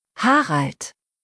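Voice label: "Harald", German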